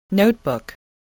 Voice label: "notebook", voice, spelling

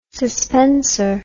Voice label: "suspenser", English word

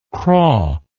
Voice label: "craw", English

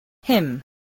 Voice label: "hymn", voice spelling

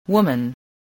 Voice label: "woman", voice, english

